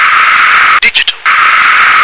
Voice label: "digital", English

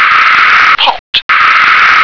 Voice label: "pot", English

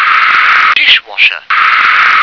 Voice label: "dishwasher", English

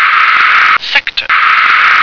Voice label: "sector", English

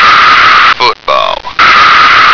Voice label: "football", American

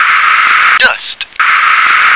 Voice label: "dust", English

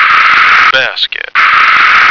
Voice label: "basket", American

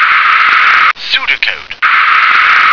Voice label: "pseudocode", English

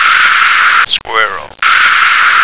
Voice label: "squirrel", American

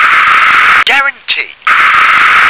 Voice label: "guarantee", English

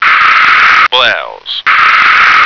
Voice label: "blouse", American

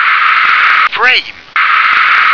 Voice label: "frame", English

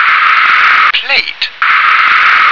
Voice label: "plate", English

